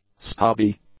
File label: "stubby", English; adjectives